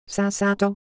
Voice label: Japanese, ideophones; Motion

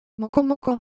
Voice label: Japanese Shape, Ideo Phones

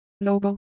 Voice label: Ewe, ideophones; Shape

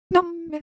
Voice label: Japanese Motion, Ideo Phones

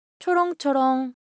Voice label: Korean, Ideo Phones; Color Visual

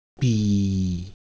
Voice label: Ewe, ideophones; Color Visual

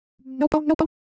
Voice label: Ewe Motion, Ideo Phones

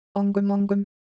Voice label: Korean, ideophones; Motion

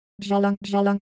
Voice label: Korean, ideophones

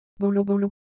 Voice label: Ewe, ideophones; Motion

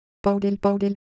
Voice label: Korean, ideophones; Texture

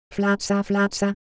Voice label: Ewe Texture, IdeoPhones

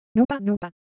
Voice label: Japanese, Ideo Phones

